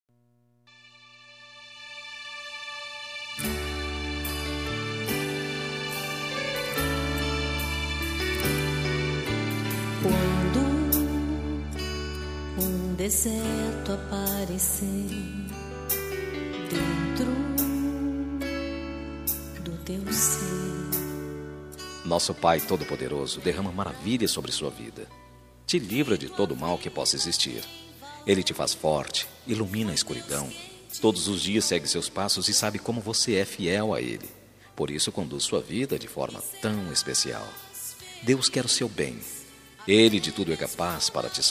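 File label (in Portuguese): Evangélica Aniversário de Amizade, male, telemensagens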